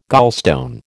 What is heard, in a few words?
"gallstone", American